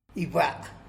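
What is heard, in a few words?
"sky", Tlacolula Zapotec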